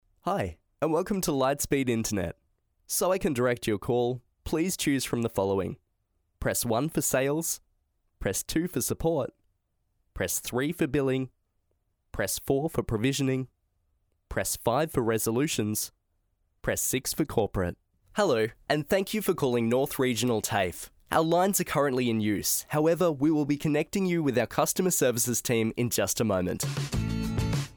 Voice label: english australian ivr